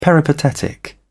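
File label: "peripatetic", British English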